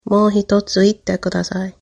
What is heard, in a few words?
say again, japanese